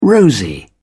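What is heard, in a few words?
"rosy", British English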